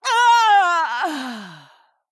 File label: Female Night Elf Death